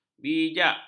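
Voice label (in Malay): "bijak", Malay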